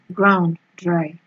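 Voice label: "ground dry", Nigerian Pidgin, female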